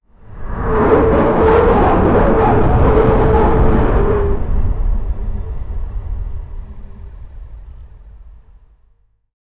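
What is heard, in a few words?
an excited cricket commentator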